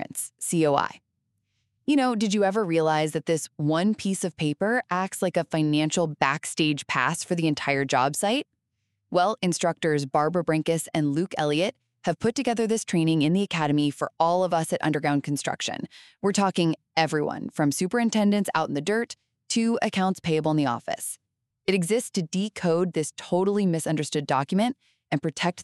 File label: Trailer; PWR-259 Certificates of Insurance COI